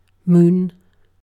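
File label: "moon", British English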